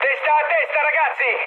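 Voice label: Italian near waypoint mood med, speech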